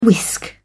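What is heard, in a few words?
"whisk", British English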